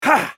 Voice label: spell cast effort; masteryi

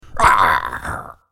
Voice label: Pirate dying

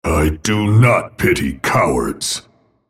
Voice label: attack, en US; Volibear; Character Voices - English

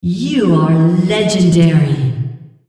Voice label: female On Killing Spree

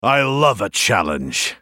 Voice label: attack, en US; JarvanIV

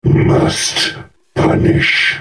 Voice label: attack, en US; Urgot

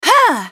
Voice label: spellcast effort; Ahri

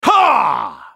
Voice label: ultimate effort; JarvanIV

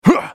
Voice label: effort, en US; Talon

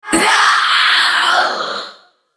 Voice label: Spider dying, en US; EliseSpider

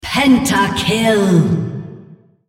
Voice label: female, On Champion Penta Kill

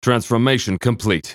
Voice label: spellcast effort; Jayce

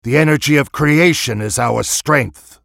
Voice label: First Move, en US; SpiritSummoner

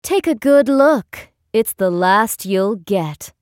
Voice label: taunt, en US; Ashe

